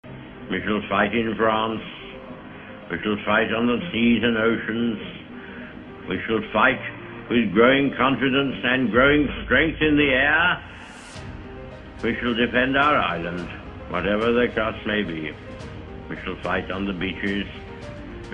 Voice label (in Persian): سخنرانی; وینستون چرچیل; Winston Churchill